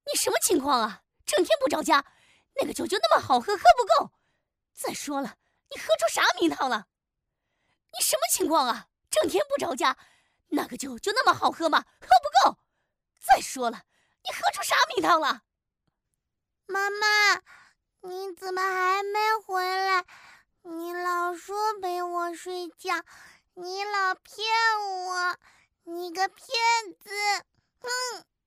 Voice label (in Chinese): 女 角色 愤怒的妻子和萌萌的女童; 素人自然